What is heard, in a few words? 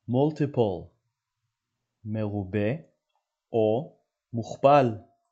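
"multiple" English, "מרובה מוכפל" Hebrew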